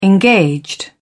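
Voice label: "engaged", British English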